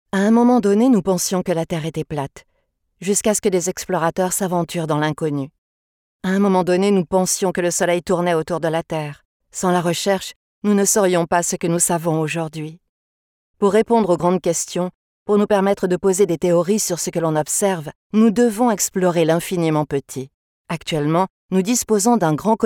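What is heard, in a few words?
French, female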